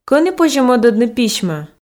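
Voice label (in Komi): кӧні позьӧ мӧдӧдны письмӧ, Komi, female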